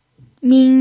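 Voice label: "min", pinyin, first tone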